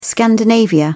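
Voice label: "scandinavia", British English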